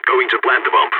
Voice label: going to plant bomb, balkan; Counter-Strike Global Offensive

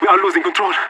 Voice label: scared emote; player vo leet

